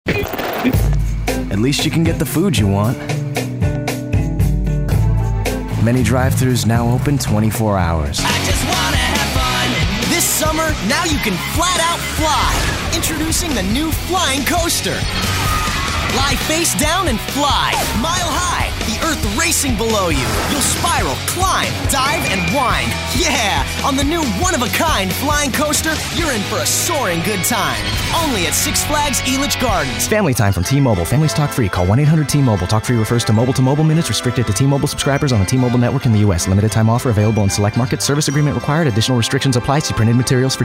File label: commercial